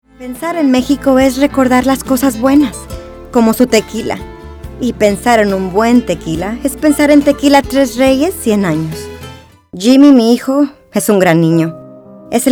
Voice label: Commercial